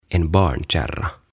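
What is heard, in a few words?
"En barnkärra", "Lastenrattaat", Swedish, Finnish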